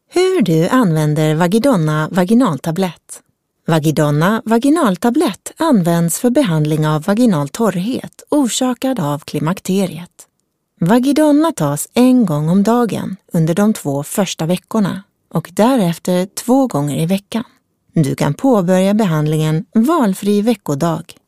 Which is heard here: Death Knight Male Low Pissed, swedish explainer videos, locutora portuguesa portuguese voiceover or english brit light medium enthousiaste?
swedish explainer videos